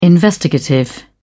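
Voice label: "investigative", British English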